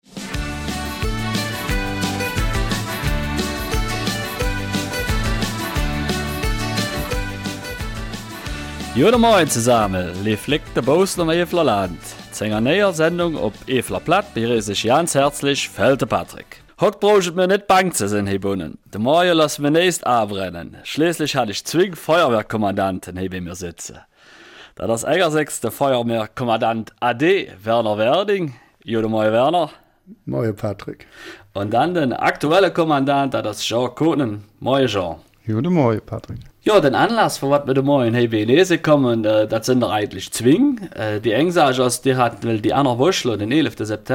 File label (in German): Eifeler Mundart; Freiwillige Feuerwehr Burg-Reuland